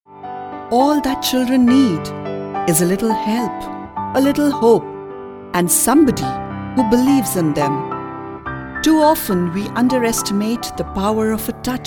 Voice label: eng, delhi; female voice

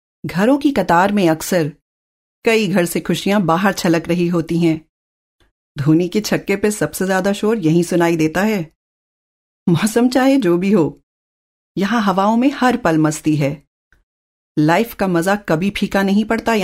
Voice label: audition drama hindi, female voice

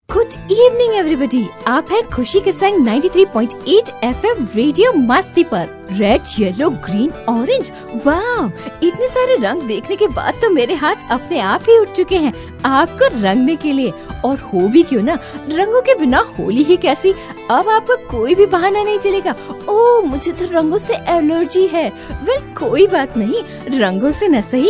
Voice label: Radio, female voice

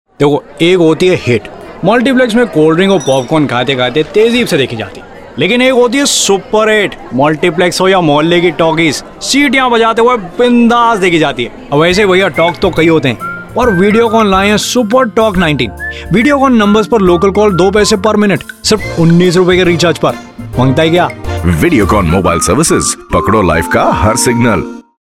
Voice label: Hindi, male voice

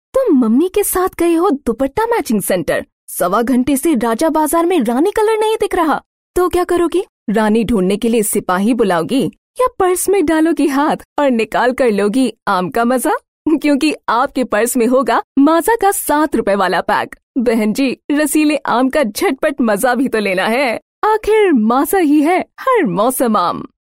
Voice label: female voice Hindi; Maaza

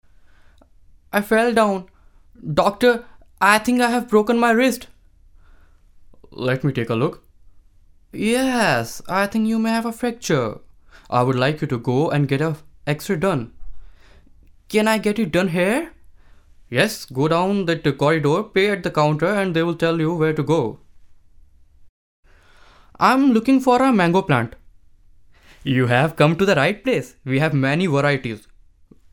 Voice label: audition e learning, male voice

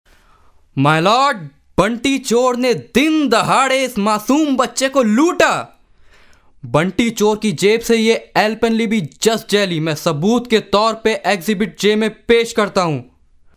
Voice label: character, male voice

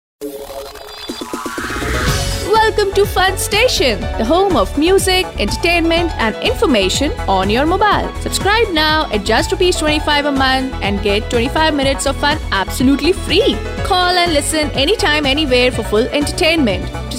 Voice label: english, female voice

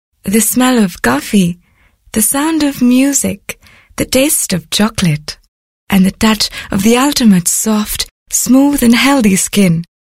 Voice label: English, female voice; boroplus

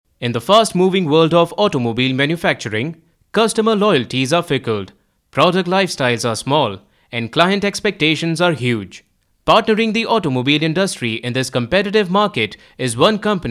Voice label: ENGLISH NEUTRAL, male voice; Rani Polymers

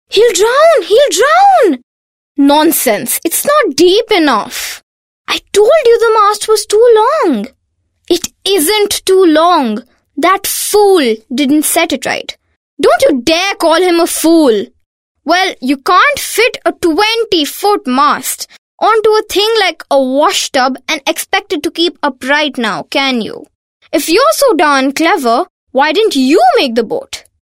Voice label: female voice 12 years English

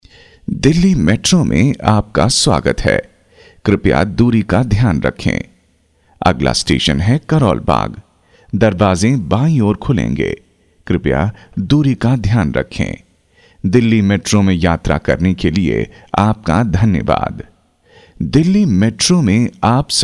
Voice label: sample metro, male voice

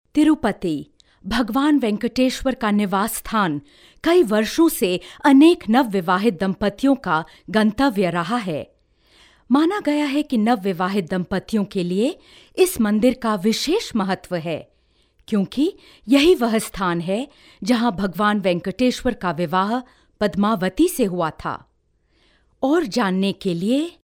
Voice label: female voice

